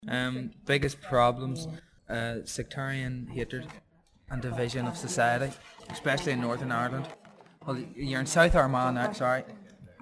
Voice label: Ireland Belfast male monologue

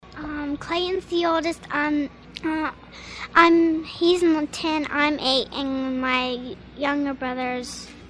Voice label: Idaho girl 8 monologue

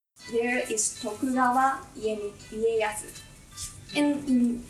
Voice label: Japanese Tokyo; Samurai Museum

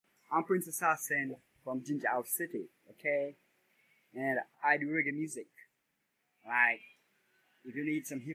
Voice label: Immersion Jamaica Rasta Male 30 s

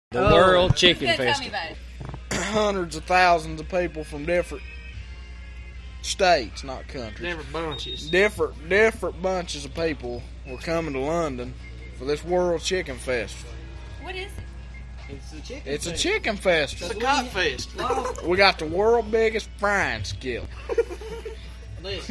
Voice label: London Kentucky WM ages 24 26

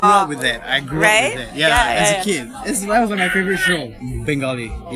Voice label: Singlish

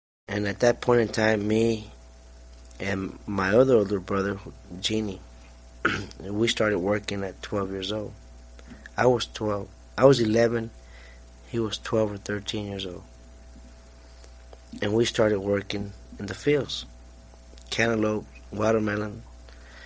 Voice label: San Angelo Texas Tex Mex age 33

